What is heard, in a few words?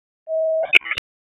Raleigh Police Downtown District Tac, p25; wake p25